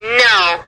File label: "no" female; Voice; expletives